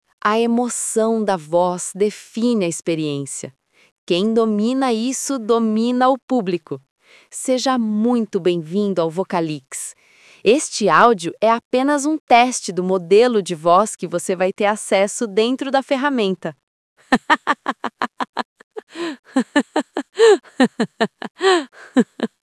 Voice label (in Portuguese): humor, Narração Humor